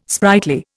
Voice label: "sprightly", Indian